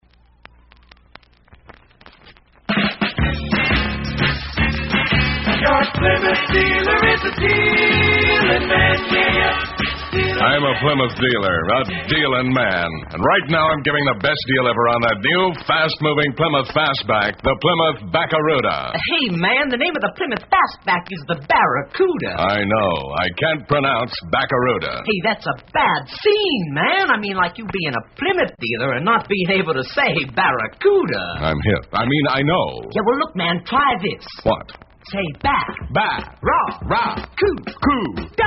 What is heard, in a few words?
radio spot; 1966 plymouth baracuda; psychedelic promos and radio spots